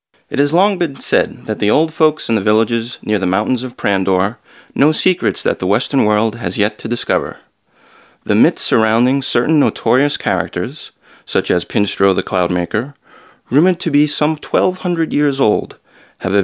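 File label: male English documentary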